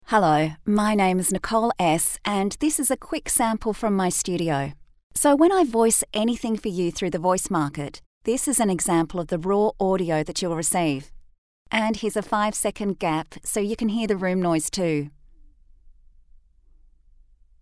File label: Studio Sound Check